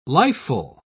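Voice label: "lifeful", British English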